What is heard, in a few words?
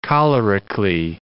"cholerically", English